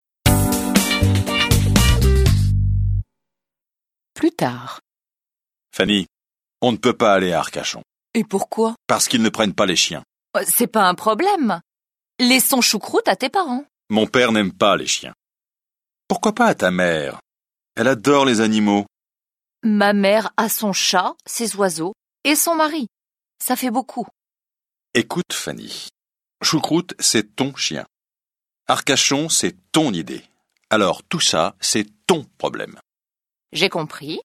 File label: French